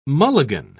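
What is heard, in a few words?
"mulligan", British English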